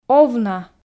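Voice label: "овна", Komi, female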